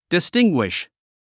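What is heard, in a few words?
"distinguish", English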